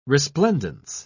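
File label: "resplendence", British English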